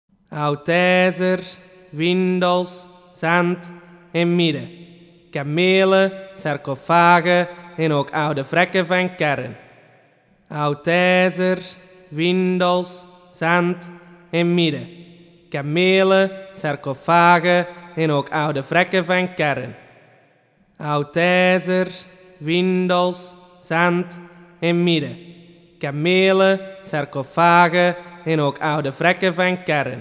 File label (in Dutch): Reklame